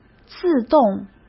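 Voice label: "自动", Mandarin Chinese; chinese-flashcards; hsk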